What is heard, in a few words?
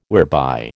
"whereby", American